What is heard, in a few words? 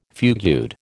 "fugued", American